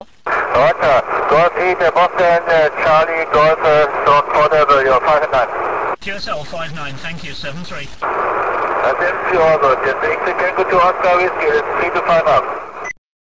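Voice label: amateur radio contact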